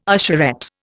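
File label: "usherette", Indian English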